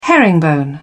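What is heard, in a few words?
"herringbone", British English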